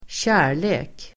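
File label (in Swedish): "kärlek", Swedish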